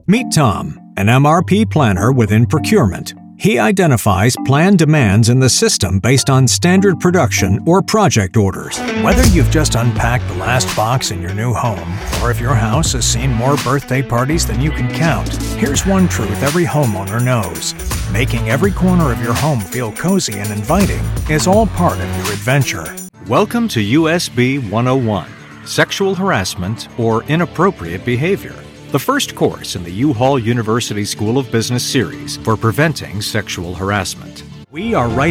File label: Corporate